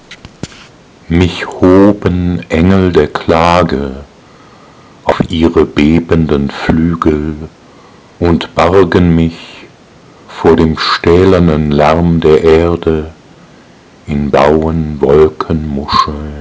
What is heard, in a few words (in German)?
Die weibliche Stimme